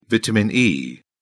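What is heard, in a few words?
"vitamin e", English, British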